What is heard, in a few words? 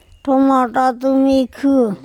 my sole THomOTotumikHu, Andamanese